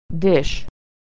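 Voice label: "dish", American English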